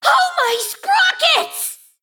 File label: Female Mecha Gnome